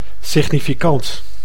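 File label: "significant", Dutch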